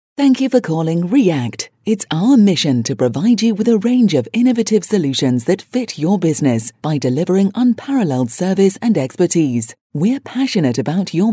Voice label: female

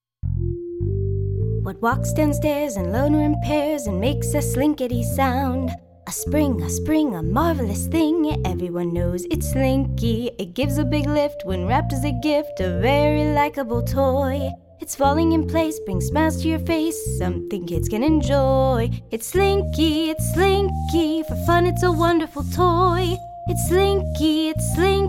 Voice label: Voice Over; Slinky 60 Second Voice Over Project

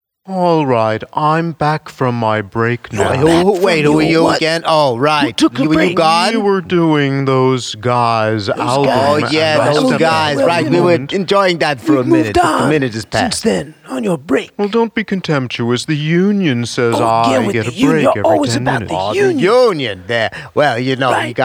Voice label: Trio Banter

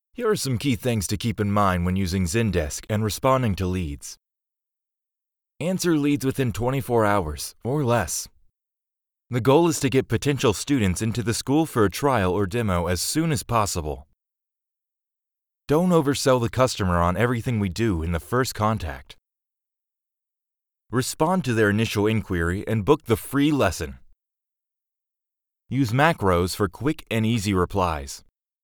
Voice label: elearning sample

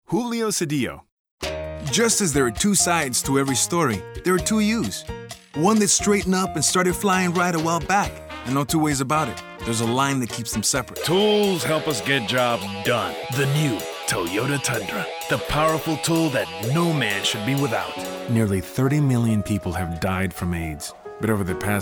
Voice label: commercial; English Commercial Spots

